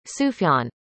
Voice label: "sufyan", arabic; indonesian boy names